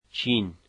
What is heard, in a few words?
"China", dari